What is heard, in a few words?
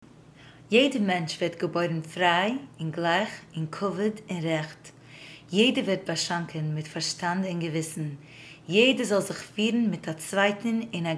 udhr yiddish